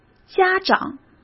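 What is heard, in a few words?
"家长", Mandarin Chinese; chinese-flashcards; hsk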